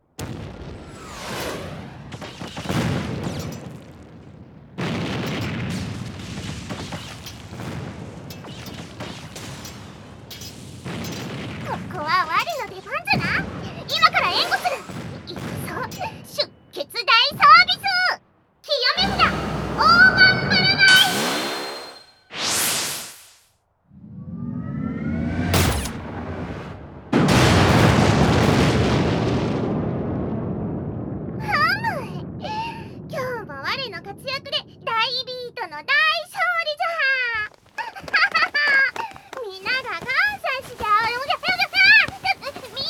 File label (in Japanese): タイトルコール; ASMR